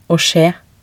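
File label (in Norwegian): "å skje", Norwegian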